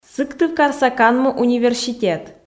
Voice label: "сыктывкарса канму университет", Komi

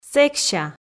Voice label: "сэкся", Komi

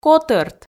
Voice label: "котӧрт", Komi

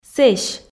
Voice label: "сэсь", Komi

